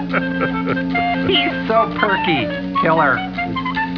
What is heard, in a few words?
perky